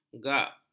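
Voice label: "gak", Malay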